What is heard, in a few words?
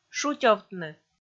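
"шутёвтны", Komi, female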